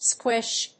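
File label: "squish", English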